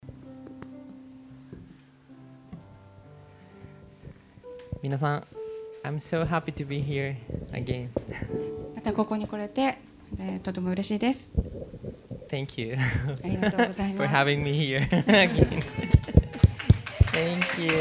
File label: announcement; sunday message 2016